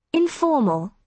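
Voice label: "informal", British English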